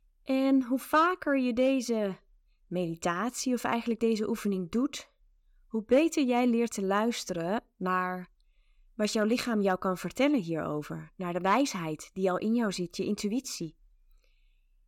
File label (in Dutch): Luister naar je vrouwelijke intuitie zonder muziek